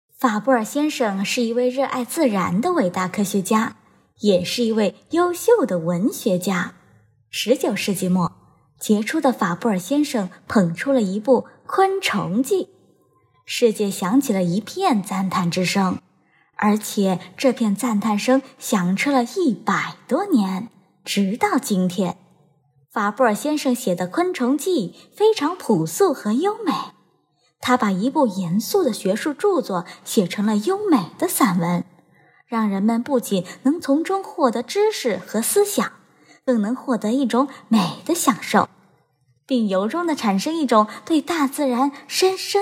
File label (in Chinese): 少儿读物配音